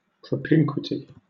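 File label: "propinquity", British English; pronunciations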